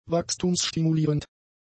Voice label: "wachstumsstimulierend", German; adjectives